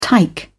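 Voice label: "tike", British English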